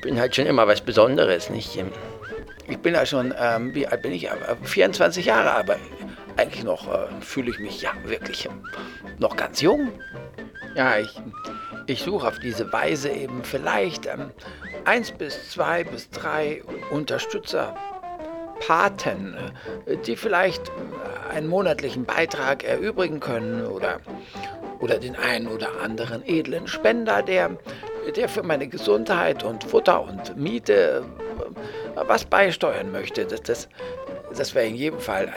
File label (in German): nonsense sprechendes Pferd